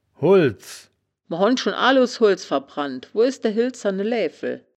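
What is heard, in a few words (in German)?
"holdz", wittlicher woerterbuch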